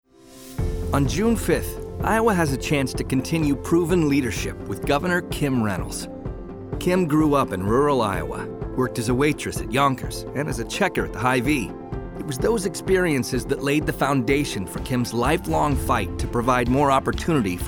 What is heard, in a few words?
Radio; AWA Reynolds